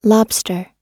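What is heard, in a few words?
"lobster", American English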